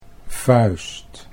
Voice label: "vuist", Dutch

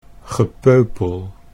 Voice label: "gepeupel", Dutch

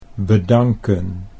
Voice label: "bedanken", Dutch

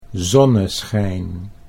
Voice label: "zonneschijn", Dutch; 'sunshine'

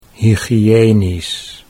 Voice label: "hygiënisch", Dutch

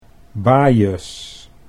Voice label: "bajes", Dutch

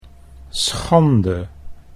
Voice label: "schande", Dutch

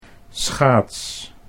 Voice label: "schaats", Dutch; 'skate'

